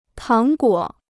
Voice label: "糖果", Chinese

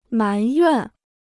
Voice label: "埋怨", Chinese

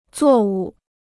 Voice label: "作物", Chinese

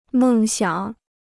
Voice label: "梦想", Chinese

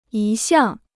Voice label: "一向", Chinese

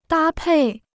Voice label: "搭配", Chinese

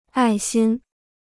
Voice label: "爱心", Chinese

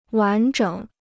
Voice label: "完整", Chinese

